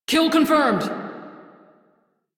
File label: Crew Gun Kill Fighter, Female; Railjack Crew; Steel Meridian